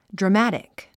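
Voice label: "dramatic", English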